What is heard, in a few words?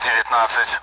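Speech Sound Russian Common AWACS Numbers; DCS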